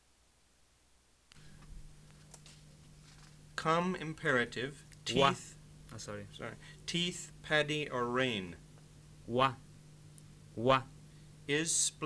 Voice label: Newati Consonants and Vowels